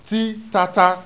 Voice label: "TATA", Yoruba; ALPHABET RHYME

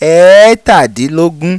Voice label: "Eetadinlogun", Yoruba

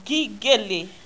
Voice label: "GELE", Yoruba; ALPHABET RHYME

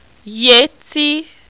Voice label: "yeti", Yoruba

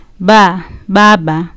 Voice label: "ba" "baba", Kiswahili

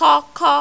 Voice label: "koko", Yoruba; HIGH SET

